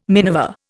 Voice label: "minever", Indian

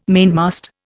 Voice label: "mainmast", Indian

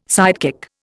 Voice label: "sidekick", Indian

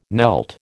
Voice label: "knelt", American

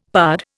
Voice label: "pard", Indian; Dictionary

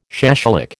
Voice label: "shashlik", American

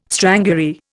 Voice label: "strangury", Indian

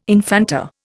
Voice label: "infanta", Indian

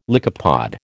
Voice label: "lycopod", American

